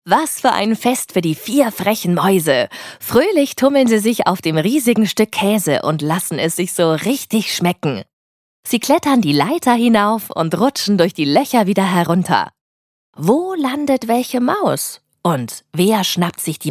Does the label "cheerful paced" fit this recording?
Yes